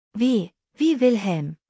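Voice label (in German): "wilhelm", German; buchstabierung alt; W wie Wilhelm; Buchstabieren Sie bitte!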